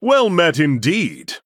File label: Male Human MIRROR GREETINGS